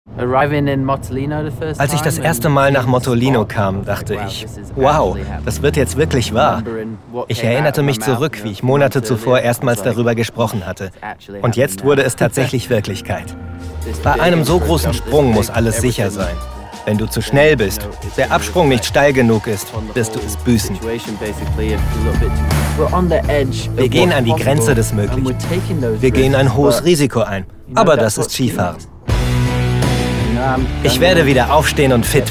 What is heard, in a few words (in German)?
voiceover sportdoku